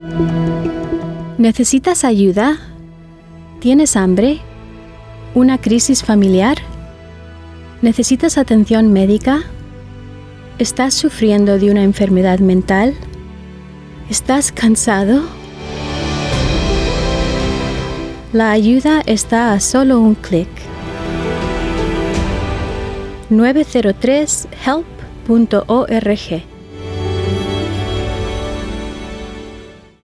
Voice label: spanish radio